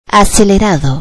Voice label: "accelerating", Spanish; economics

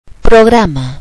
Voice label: "software", spanish

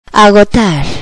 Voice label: "exhaust to", Spanish; finance

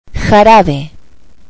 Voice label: "syrup", spanish

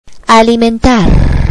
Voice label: "feed to", Spanish

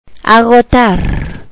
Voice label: exhaust to, Spanish

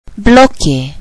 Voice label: "block", Spanish

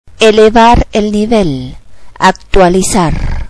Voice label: "upgrade to", spanish

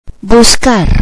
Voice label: "search to", Spanish

